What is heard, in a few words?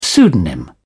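"pseudonym", British English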